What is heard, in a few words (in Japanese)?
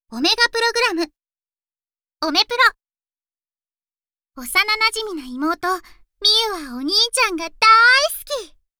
タイトルコール